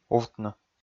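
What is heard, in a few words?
"увтны", Komi, male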